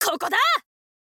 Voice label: thief boy attack

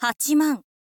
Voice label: info lady; voice

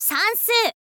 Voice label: "sansuu", info girl voice

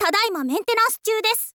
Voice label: info girl; voice

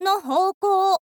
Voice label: "no houkou", girl; voice; info girl

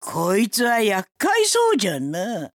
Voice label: necromancer old woman start

